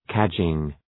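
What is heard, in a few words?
"cadging", English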